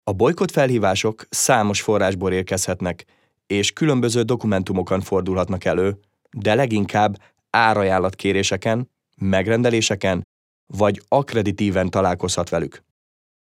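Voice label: Hungarian narration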